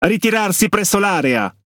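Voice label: Italian speech Quick Commands Ally Point; World Of Warships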